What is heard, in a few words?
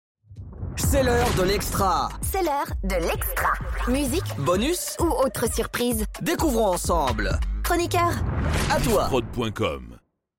Jingle Extra